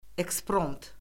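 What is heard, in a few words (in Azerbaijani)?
"ekspromt", Azerbaijani; Orfoqrafiya Lüğəti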